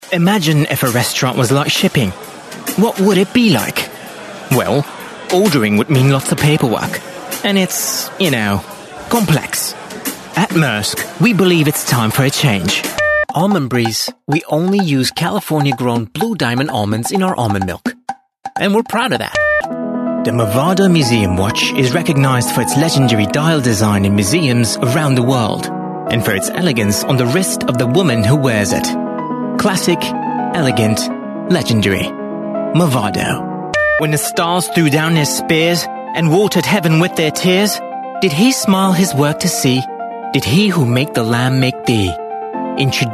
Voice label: english british voice over male commercial